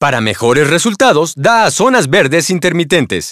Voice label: pinata vo, Spanish; Birthday Party Bash; Wii